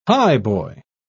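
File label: "highboy", English, British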